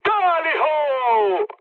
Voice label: enemy detected mood low; speech; War Thunder

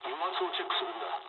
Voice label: damaged oil mood low, speech jp; War Thunder

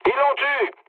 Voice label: aircraft destroyed mood low, speech fr

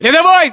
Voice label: rank private, ru

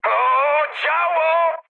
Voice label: damaged aa mood high, speech pol; War Thunder

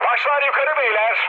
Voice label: near waypoint, mood high, speech tur; War Thunder

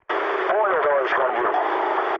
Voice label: chat, speech ru

